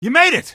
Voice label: you made it, male; Source Engine; video game; coast; barn